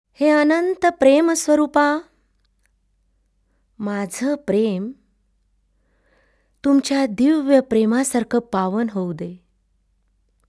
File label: Spiritual Affirmations, Marathi; Seek God; Prayer & Light Meditation for World Peace; Love Peace Joy